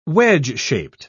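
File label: "wedge-shaped", British English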